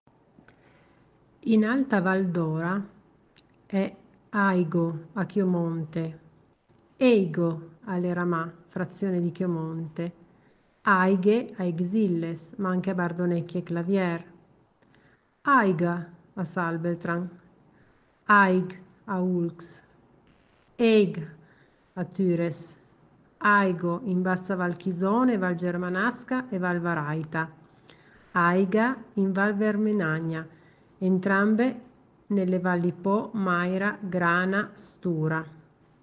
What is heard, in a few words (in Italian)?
Registrazione Occitano